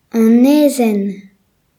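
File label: "steam", Breton; gcompris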